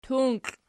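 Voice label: "tunkli" nominative, Archi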